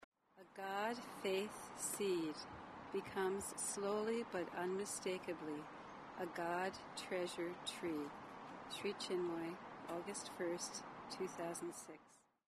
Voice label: poem